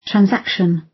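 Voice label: "transaction" British English; Technology; Công nghệ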